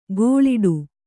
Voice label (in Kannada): "ಗೋಳಿಡು", Kannada